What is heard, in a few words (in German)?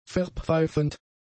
"verpfeifend", german; adjectives